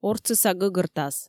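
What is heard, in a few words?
"ортсыса гӧгӧртас", Komi, female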